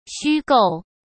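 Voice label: "虚构", Mandarin Chinese; chinese-flashcards; hsk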